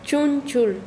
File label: Chanka, quechua; intestino